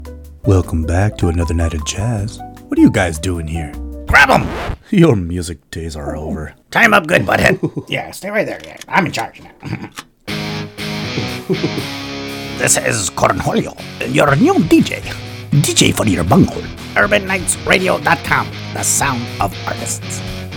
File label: Radio; Beavis and Butthead Take Over Urban Knights Radio